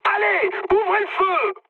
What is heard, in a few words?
attack position mood high, speech fr; War Thunder